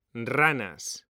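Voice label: "ranas", Spanish, male; mekanta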